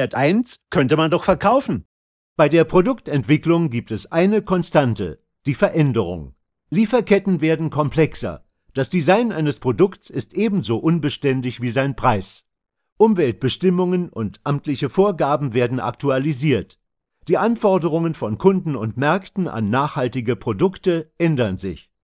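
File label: German, Amazon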